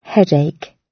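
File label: "headache", British English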